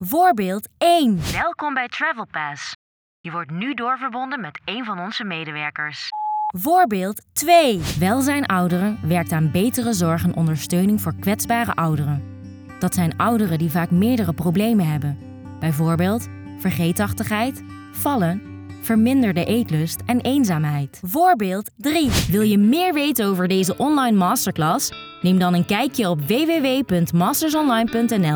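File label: Corporate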